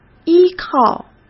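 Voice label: "依靠", Mandarin Chinese; chinese-flashcards; hsk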